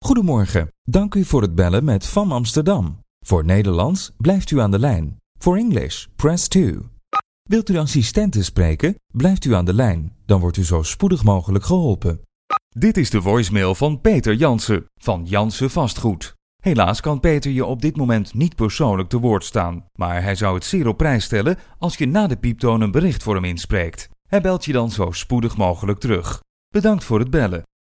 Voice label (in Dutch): Telefonie Man